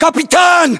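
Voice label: rank captain, battlechatter, Russian; localized english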